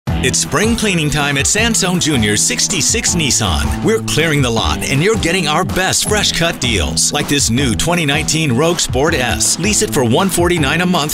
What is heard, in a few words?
Commercial